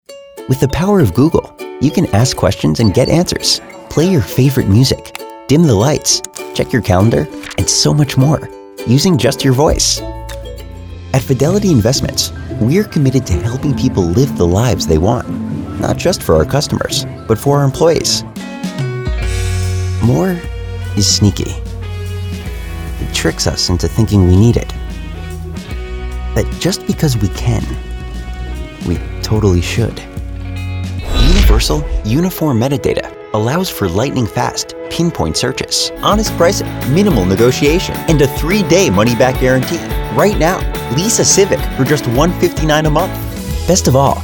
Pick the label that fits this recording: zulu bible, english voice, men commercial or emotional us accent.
men commercial